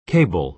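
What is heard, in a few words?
"cable", American English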